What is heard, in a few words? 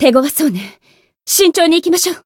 encount fear jp; anaberu